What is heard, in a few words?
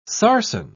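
"sarsen", British English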